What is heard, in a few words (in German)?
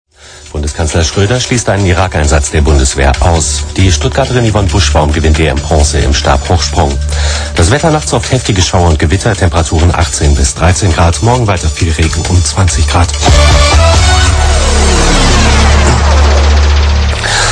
stimme weg, radiopannen